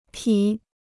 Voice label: "匹", Chinese